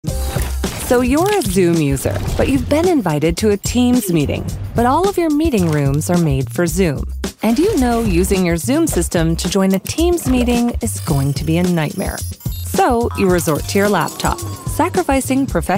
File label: Explainer Video Sample; Pexip